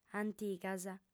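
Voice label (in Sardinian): "antigas", female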